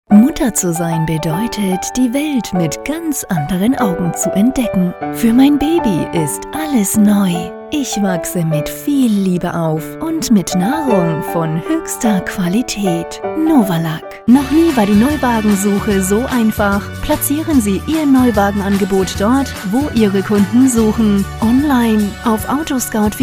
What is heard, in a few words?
COMMERCIALS